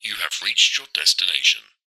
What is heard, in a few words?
sat nav male voice english says you have reached your destination